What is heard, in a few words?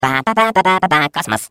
Space core babble, ru; Core voice lines